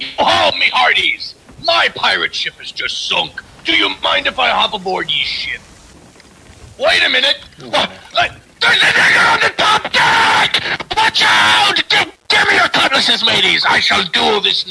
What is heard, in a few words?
pirate